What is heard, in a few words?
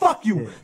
"fuck you", male; expletives